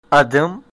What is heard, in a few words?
my name is, turkish